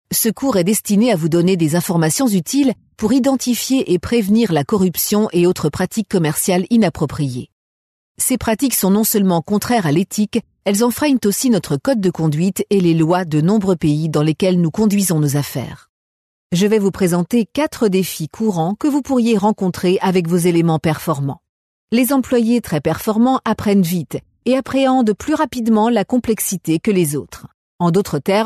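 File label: French female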